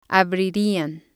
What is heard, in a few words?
"abririan", spanish